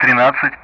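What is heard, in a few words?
Speech Sound Russian; DCS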